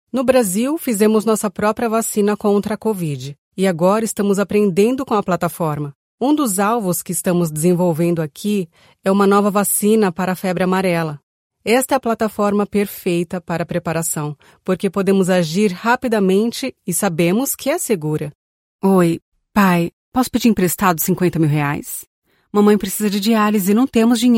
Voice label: dubbing dry

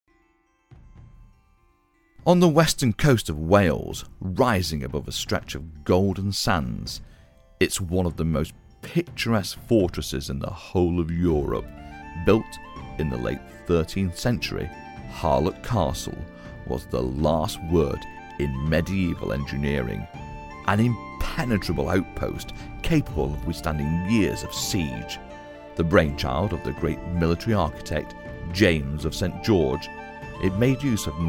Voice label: docu vo; harlech castle

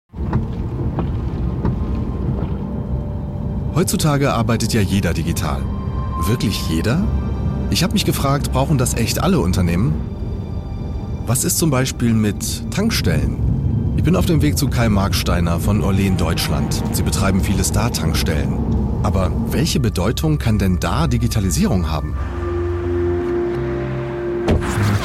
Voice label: voice over; telekom

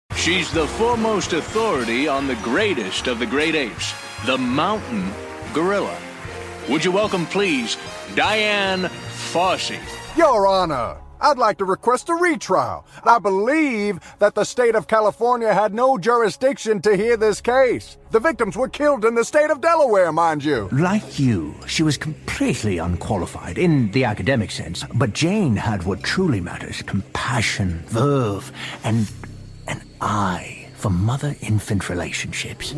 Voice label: m character

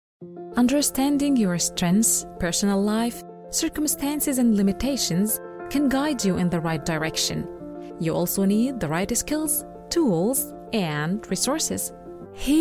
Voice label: english narration

